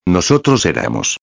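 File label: "eramos", Spanish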